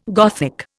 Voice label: "Gothic", Indian

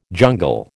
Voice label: "jungle", American

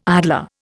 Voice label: "idler", Indian

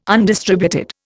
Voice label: "undistributed", Indian

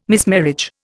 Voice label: "mismarriage", Indian

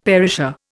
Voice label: "perisher", Indian; Dictionary

